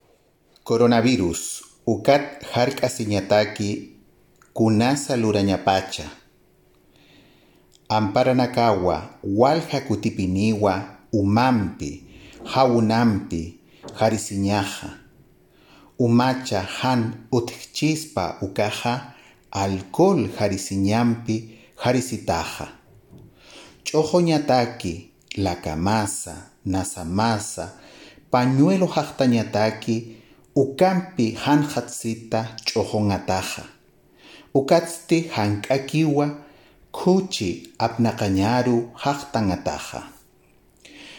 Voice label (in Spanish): Audio Aymara